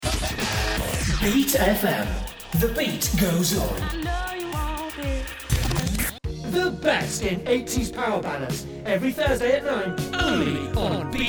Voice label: Radio Imaging